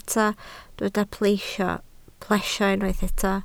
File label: "plesio", Welsh